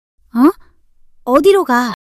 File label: surprise, monologue